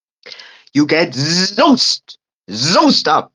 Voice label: voice message